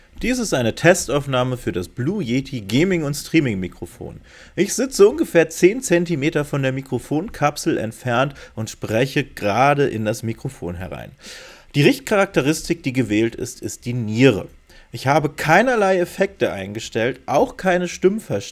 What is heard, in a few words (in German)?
Blue Yeti ohne Effekte